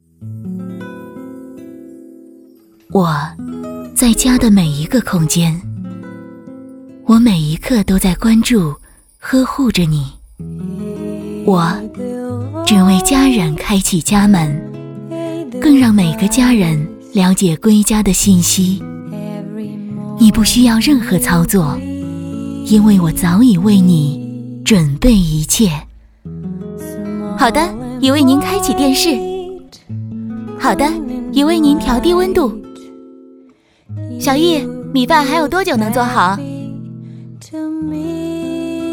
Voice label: 广告 画外音; 天猫精灵

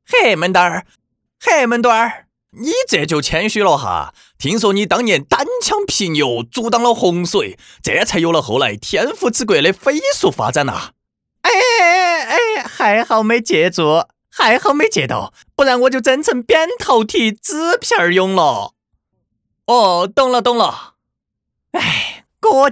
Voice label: 四川成都话; 陶俑

